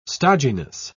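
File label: "stodginess", English, British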